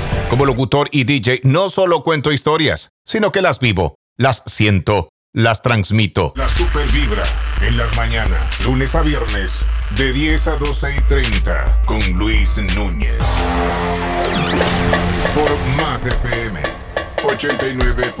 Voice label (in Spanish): VOZ OFF PARA RADIO; DJ Drops